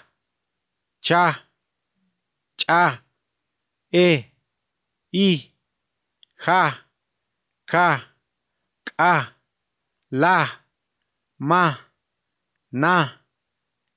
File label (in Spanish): ALFABETO CHOL